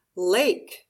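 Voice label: "lake", English; palabra del dia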